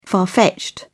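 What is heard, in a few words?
"far fetched", British English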